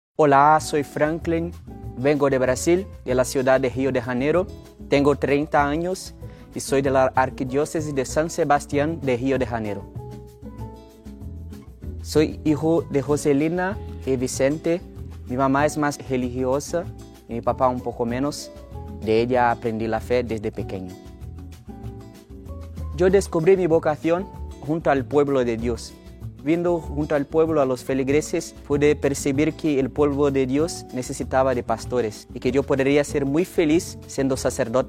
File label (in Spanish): testimonio; BIDASOA ES COMO PENTECOSTES; seminarista; Brasil; vocacion sacerdotal